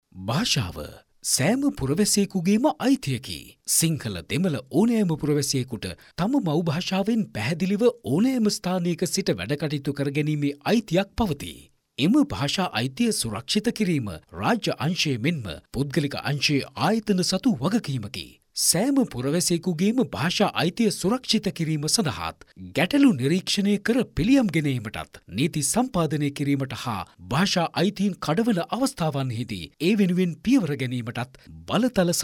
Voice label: MALE NARRATION